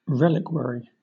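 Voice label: "reliquary", British English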